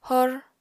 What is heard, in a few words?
"hor", apatani